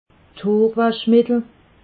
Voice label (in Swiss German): "hoorwaschmittel", alsace